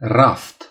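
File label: "shelf", Romanian; gcompris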